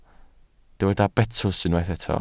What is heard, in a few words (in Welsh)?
"betws", Welsh